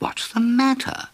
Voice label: what's the matter, male